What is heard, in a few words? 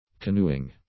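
"canoeing", English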